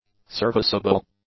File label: "serviceable", English; adjectives